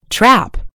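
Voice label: "trap", British English; gcompris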